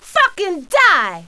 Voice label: "die", female; kingpin; yolanda

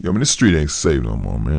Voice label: male neutral talk; kingpin

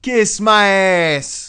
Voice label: cuss, profanity, male; kingpin

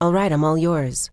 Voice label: female hired; kingpin; hiredgirl

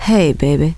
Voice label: hey baby, female; kingpin loc; neutral

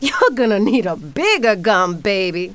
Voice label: bigger gun, female; kingpin; yolanda

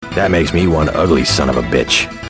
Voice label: "ugly sob"

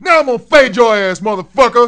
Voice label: cuss, profanity, male; kingpin

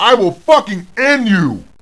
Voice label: cuss, profanity, male; kingpin

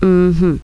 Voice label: um hmm, female; kingpin loc; neutral

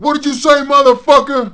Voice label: cuss, profanity, male; kingpin

